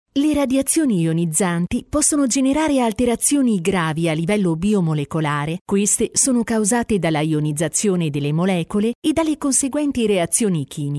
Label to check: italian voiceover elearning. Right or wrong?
Right